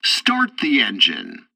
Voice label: tutorial takeoff preparation, english; War Thunder